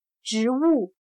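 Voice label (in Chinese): "植物", Chinese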